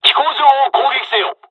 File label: airfields, dialogs japanese; hon jpn second wave; War Thunder